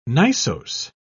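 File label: "gneissose", British English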